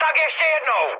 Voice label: bomb failure, speech cze, mood high; War Thunder